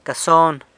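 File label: "cason", San Lucas Quiaviní Zapotec; pants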